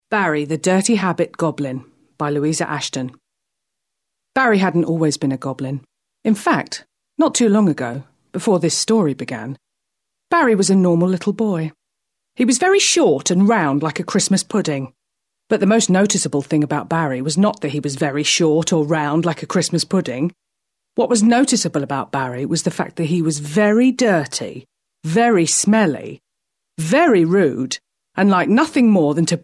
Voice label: narrative

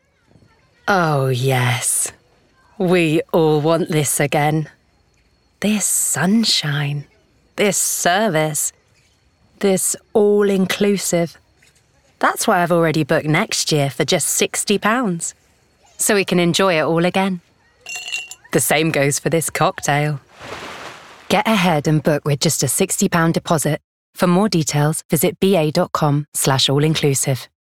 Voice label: Ad; BA; BA COMMERCIAL

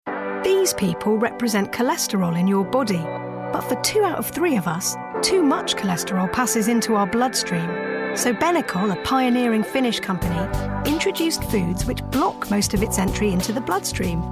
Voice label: commercial reel

